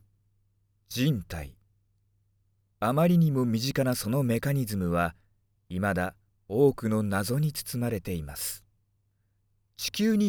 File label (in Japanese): ナレーション, しっとりと; 「体の中は小宇宙」